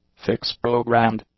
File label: "fix-programmed", english; adjectives